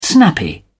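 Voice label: "snappy", British English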